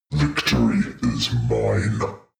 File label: demon dark voice thick speak victory is mine